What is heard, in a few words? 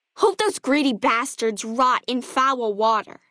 female child greeting; FNV